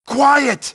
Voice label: fubar, grunt; nimble grunt

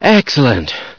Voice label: cheer; voice commands; scientist

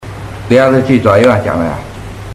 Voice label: chat

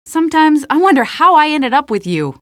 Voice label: taunt, citizen voice

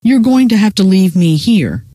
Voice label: leave me here, scientist female

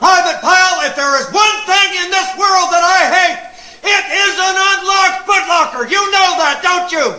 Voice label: chat; sgthartmann

